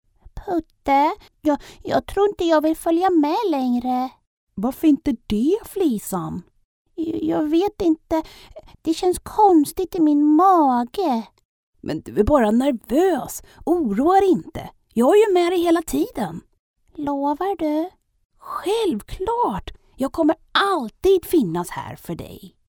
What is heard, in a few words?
Swedish female character, Soft Friendly Swedish Female Voice